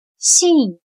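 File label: "信" Chinese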